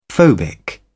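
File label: "phobic", British English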